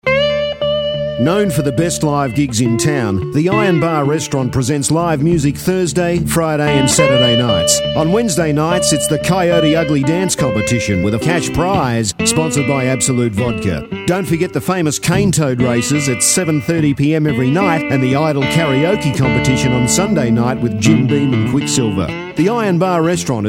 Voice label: Voice Over; Iron Bar